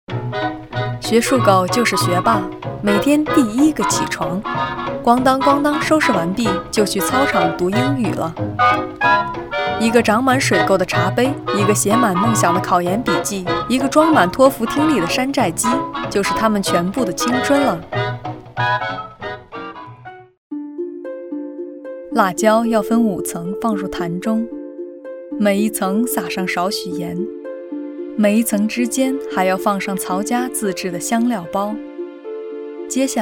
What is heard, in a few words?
Chinese - Mandarin